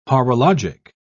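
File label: "horologic", British English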